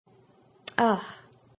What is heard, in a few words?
Woman says Ah, A startled woman says; Talking